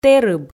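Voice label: "тэрыб", Komi, female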